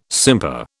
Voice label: "simper", British